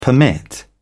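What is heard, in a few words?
"permit", British English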